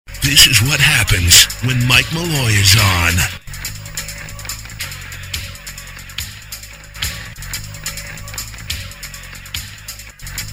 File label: promo imaging